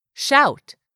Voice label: "shout", English; 3000 words